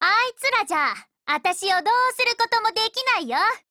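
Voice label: DEFENSE, Japanese; CMR30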